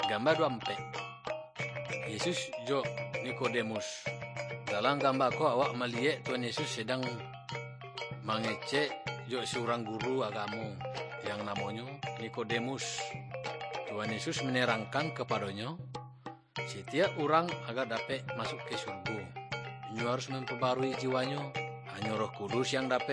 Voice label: good news minangkabau muko muko; kaba ilo